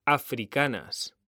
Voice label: "AFRICANAS", H; mekanta

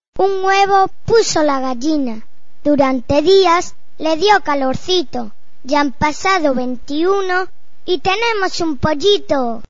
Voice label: poesia huevo gallina; Poesías; Un huevo puso la gallina.

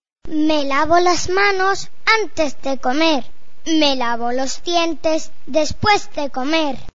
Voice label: poesia lavo manos; fantasmin; poesias

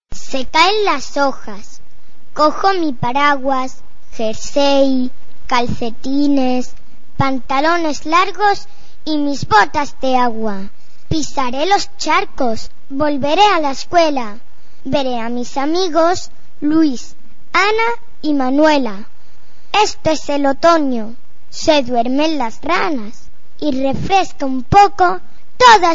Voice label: estaciones poesia otono; Poesías

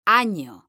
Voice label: "AÑO", M; mekanta

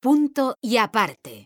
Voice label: "punto y aparte" mujer; mekanta

